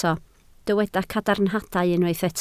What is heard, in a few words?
"cadarnhadau", Welsh